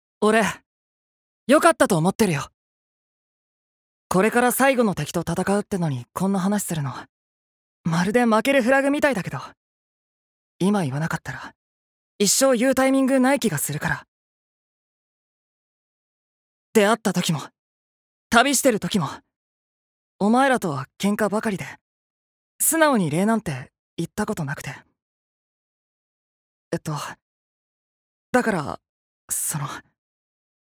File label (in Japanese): アニメ